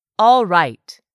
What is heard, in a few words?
"ALLRIGHT", english; 3000 words